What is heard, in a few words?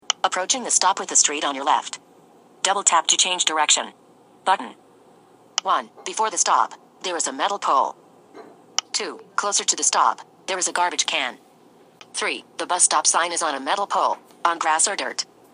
Voice Over; Blind Ways